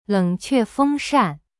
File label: "leng3que4feng1shan4", Chinese; cyberchinese